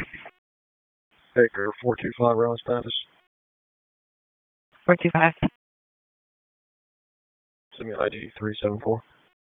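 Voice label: Police Southeast District Dispatch, p25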